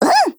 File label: AGREE JP